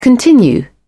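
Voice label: "continue", British English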